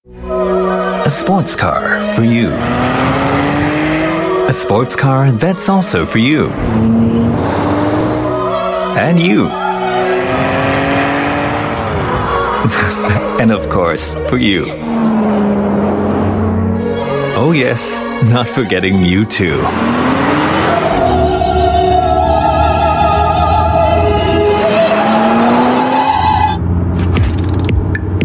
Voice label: Asian English; The Porsche Cayenne

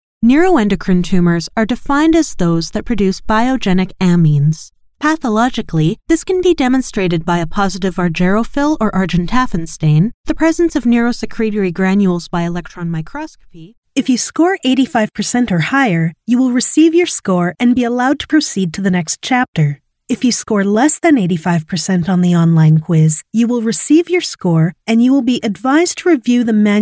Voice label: US English E-Learning, English US Female

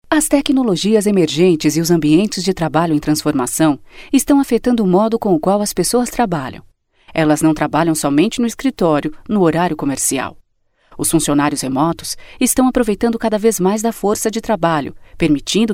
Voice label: Brazilian Portuguese, Brazilian Portuguese Female

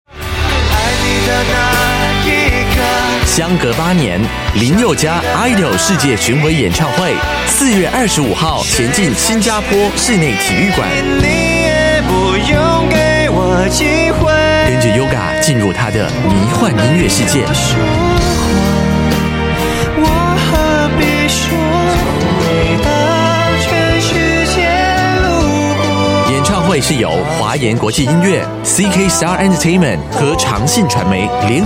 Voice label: Mandarin China Ad; Yoga

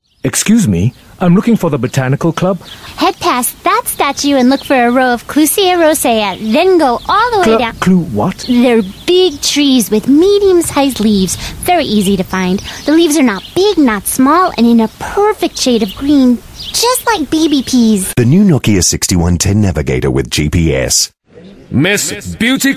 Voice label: US English commercials

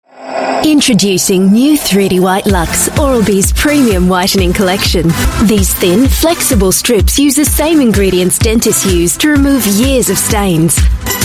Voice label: Asian English TVC; Lux OralB

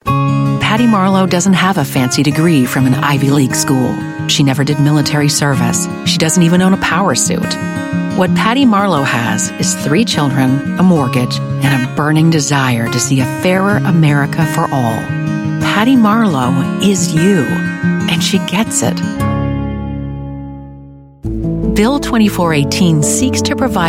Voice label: US English Political

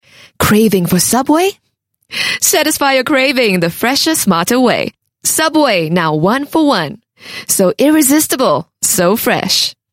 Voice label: Asian English F; Subway

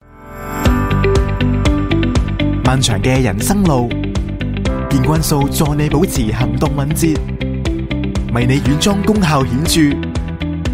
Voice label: Cantonese TVC; Brands

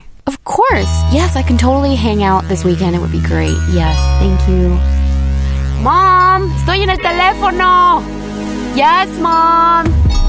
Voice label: US English Gaming

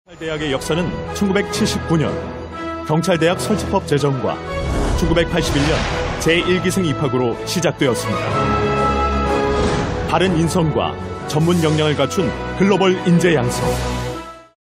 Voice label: Korean Male

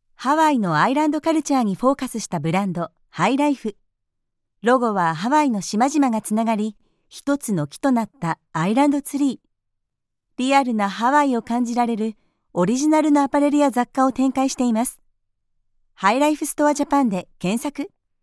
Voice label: Japanese Female

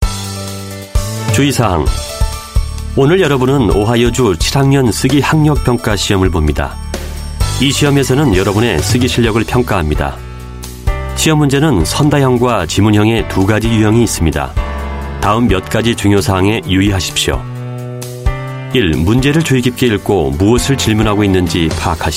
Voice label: Korean, Korean Male

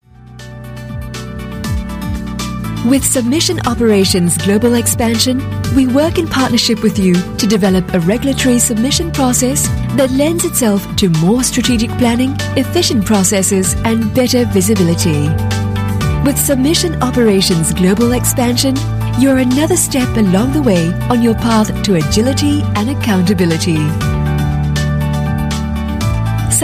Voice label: Asian English Corporate Video

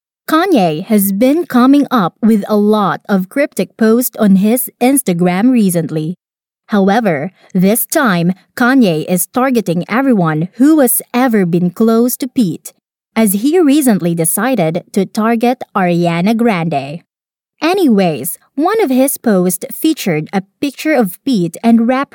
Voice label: Filipino English Youtube Narration

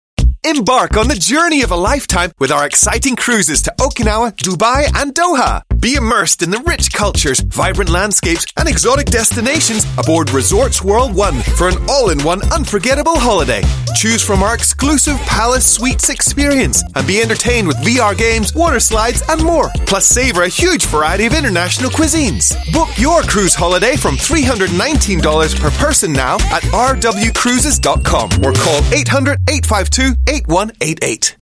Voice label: Asian English; RW Cruise

